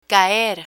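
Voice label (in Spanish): "caer", Spanish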